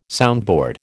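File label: "soundboard", American English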